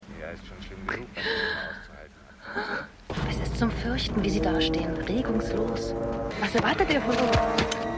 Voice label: Mann, Frau; Earth 2

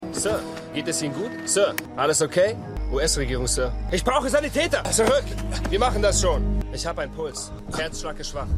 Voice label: Soldat US Regierung, Stimmproben; Revolution

